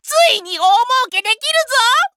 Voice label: victory jp